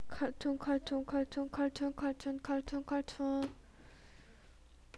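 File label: "cartoon", spoken word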